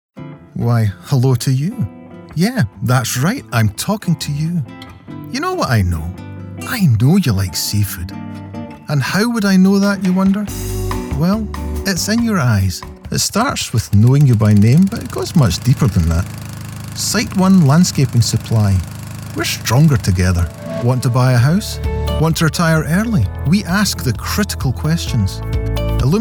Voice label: Scottish voice over Commercial